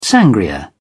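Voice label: "sangria", British English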